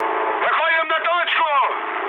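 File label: near waypoint, mood med, Russian; War Thunder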